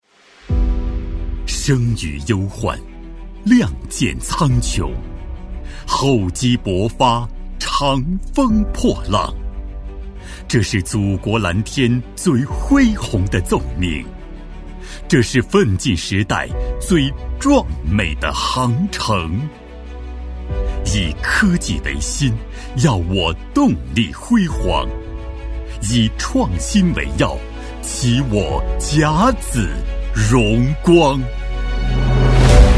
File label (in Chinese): 男 专题配音 大气雄浑 力度; 透亮故事感; 大气浑厚; 中国航发沈阳发动机设计研究所